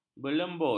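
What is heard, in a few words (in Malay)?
"berlembut", Malay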